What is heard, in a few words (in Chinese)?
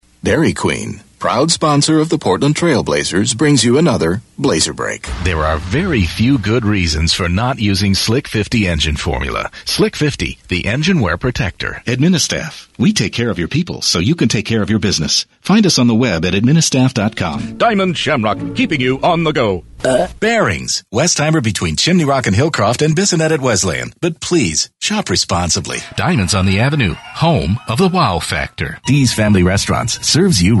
外籍男